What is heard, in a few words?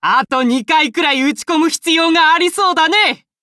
upgrade, ja; cookierun